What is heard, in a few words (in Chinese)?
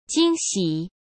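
"惊喜", Mandarin Chinese; hsk; chinese-flashcards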